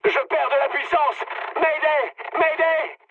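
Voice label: damaged engine, mood high, French; War Thunder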